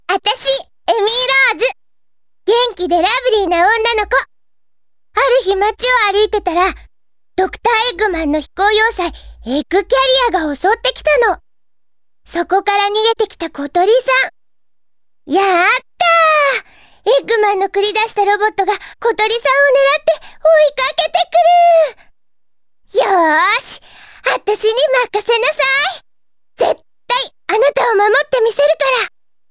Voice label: JP Ad Voice; SonicAdventure; Amy